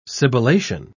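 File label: "sibilation", British English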